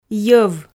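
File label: "йӧв", Komi, female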